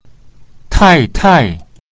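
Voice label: "tai4tai4", Mandarin Chinese; Mrs.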